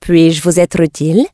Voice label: draenei, female; puis je utile; PhoenixCraft-1.16.5; PhoenixNPCPack; minecraft